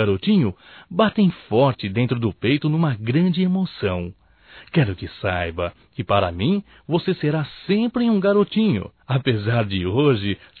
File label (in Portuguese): ANIV FILHO M, Telemensagens; Telemensagens 2017; Aniversarios